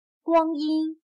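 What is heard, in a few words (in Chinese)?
"光阴" Mandarin Chinese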